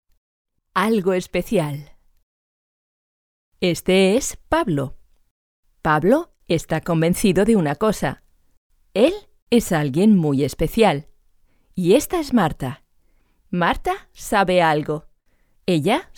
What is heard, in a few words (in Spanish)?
audiolibro